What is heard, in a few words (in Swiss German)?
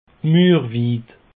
"murwiid", alsace; peche